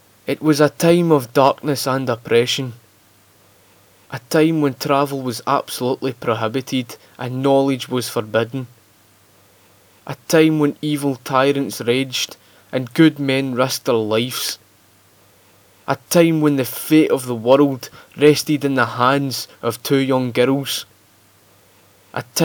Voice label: Narration in Scottish accent pacing